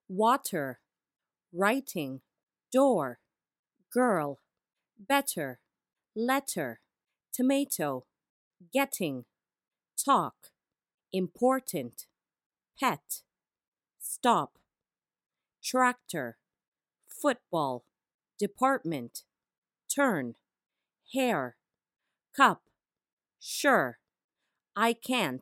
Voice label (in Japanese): カナダ人女性